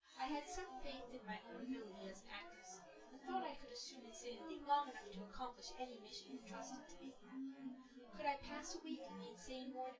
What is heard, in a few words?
female synth conv; SiSEC10; underdetermined